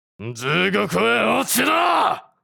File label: Fall into hell, anime villain character, japanese voice over